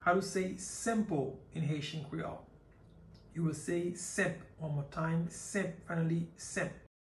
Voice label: Senp pronunciation by a Haitian teacher, Haitian Creole